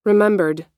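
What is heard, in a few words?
"remembered", US English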